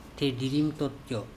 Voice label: TheDirim totco I am light black, andamanese